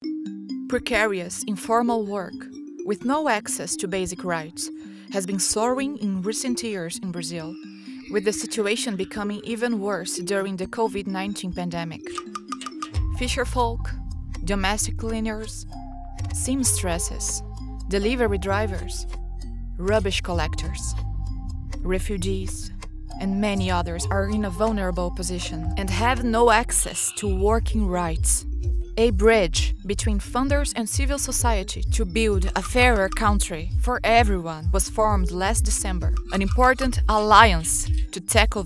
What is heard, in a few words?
english female brazilian portuguese voiceover; Labora